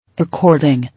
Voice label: "According", English

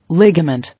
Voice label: "Ligament", English

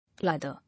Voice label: "Lather", English

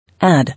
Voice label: "Add", English

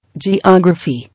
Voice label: "Geography", English

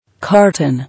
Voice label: "Carton", English

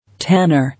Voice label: "Tanner", English

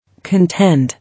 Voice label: "Contend", English

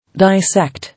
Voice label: "Dissect", English

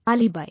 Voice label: "Alibi", English

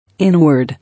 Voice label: "Inward", English

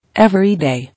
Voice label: "Everyday", English

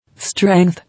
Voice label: "Strength", English

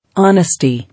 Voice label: "Honesty", English

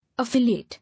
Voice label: "Affiliate", English

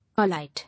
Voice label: "Alight", English

